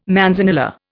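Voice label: "manzanilla", Indian English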